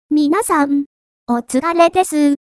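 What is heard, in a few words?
"otsukare", Japanese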